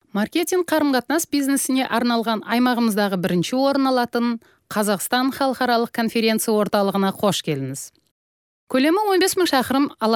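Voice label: Kazakh female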